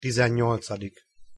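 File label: "tizennyolcadik", Hungarian pronunciation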